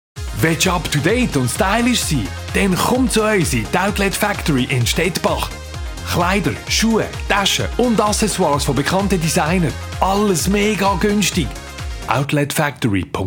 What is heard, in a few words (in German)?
werbung; outlet factory